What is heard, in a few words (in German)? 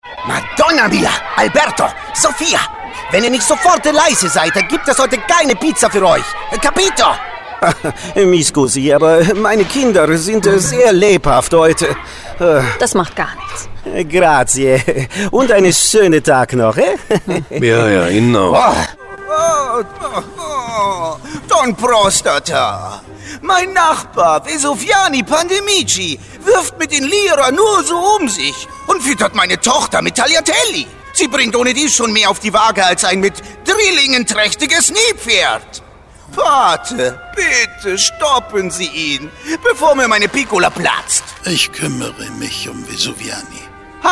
Hoerspiel Akzent Italienisch Comedy